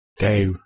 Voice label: "deaw", Anglo Saxon